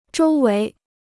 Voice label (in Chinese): "周围", Chinese